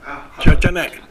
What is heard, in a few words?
"yachanae", minamiyamagata